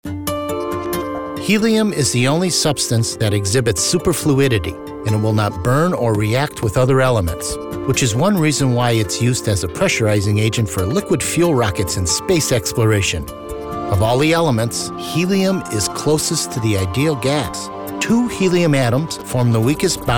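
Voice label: narration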